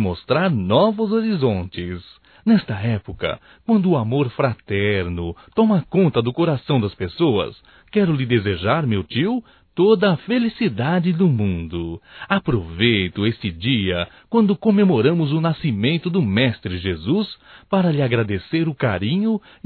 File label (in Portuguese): NATAL TIO, masc; Natal e ano novo; Telemensagens 2017